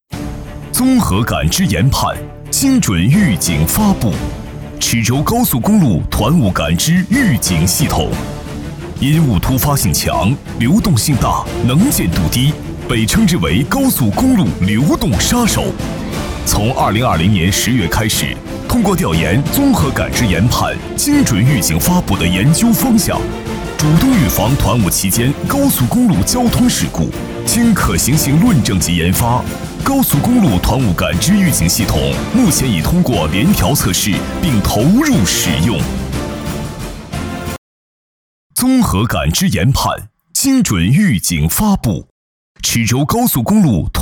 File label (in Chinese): 男, 力度专题, 警示片